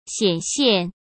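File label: "显现", Mandarin Chinese; hsk; chinese-flashcards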